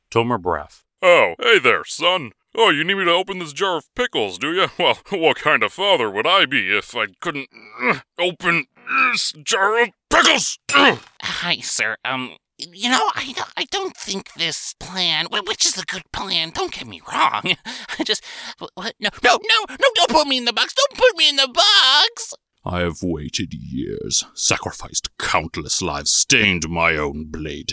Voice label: Old Character